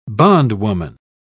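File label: "bondwoman", British English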